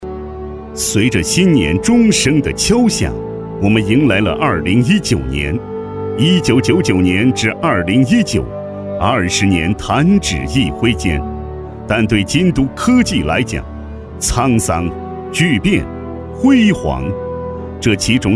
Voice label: 男国 专题 企业 稳重; 京都

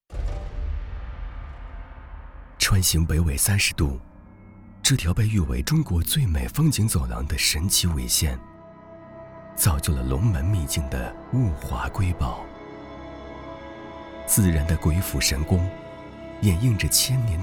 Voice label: 纪录片 人文历史 遗迹景点, 男; 龙门

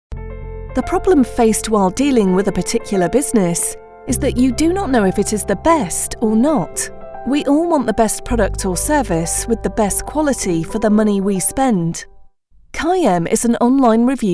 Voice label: 外籍女英 外语 英式英语 轻快

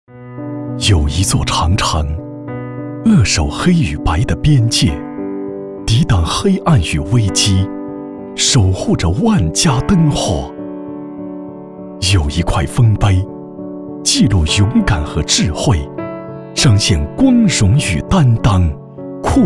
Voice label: 男国 其他 微电影 大气; 禁毒

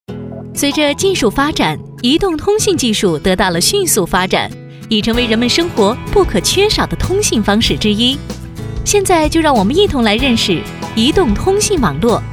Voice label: 专题 产品 移动通信网络 轻松

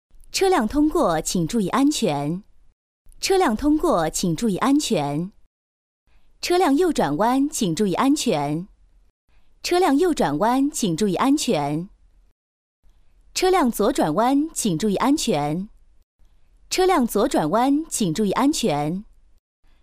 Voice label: 广播提示 车辆提示

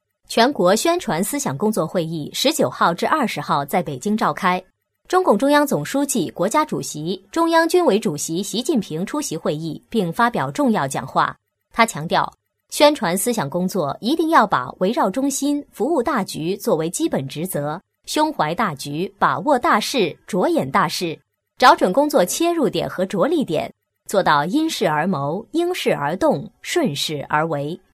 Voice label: 专题 新闻 国内新闻

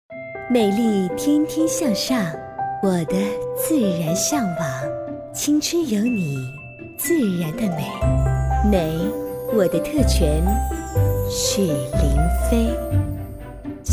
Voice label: 广告 品牌形象 时尚; 雪玲妃广告

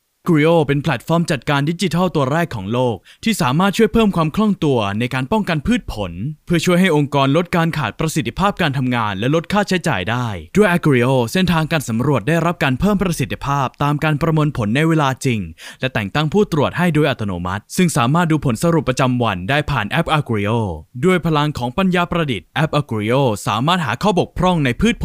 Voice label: 泰语男 外语 小语种

